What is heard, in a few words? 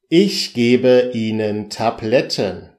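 ich gebe ihnen tabletten, German; beim arzt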